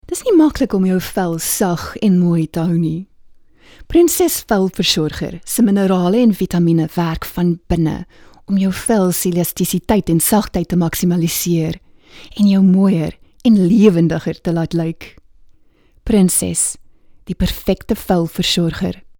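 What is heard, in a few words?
afrikaans soft sell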